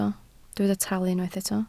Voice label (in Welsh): "talu" Welsh